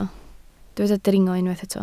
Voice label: "dringo", Welsh